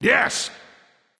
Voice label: emote yes; smite; vulcan